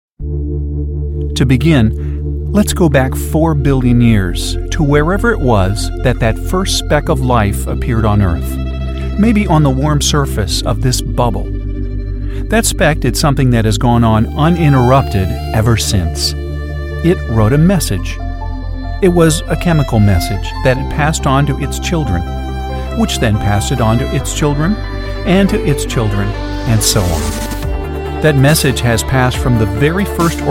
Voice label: Documentary